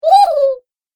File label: "Ya-heeeeee", Cartoon Game Voice; Cartoon Voice Sound Effects